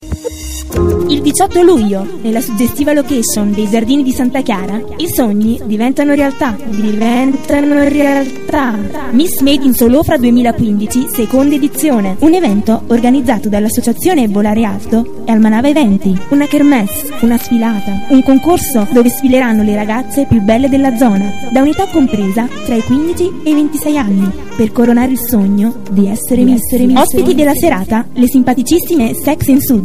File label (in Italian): lounge donna; voci